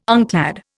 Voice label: "unclad", Indian